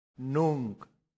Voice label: "nunc", Latin; santillana

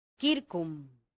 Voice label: "circum", latin; santillana

